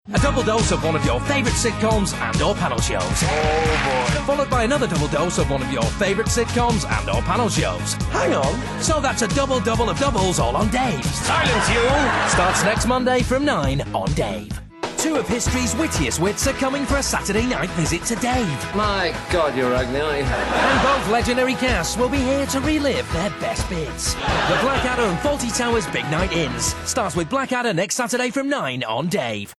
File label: Promo Comedy